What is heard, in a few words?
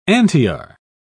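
"antiar", English, British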